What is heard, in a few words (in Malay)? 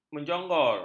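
"menjonggor", Malay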